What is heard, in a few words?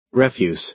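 "refuse", American English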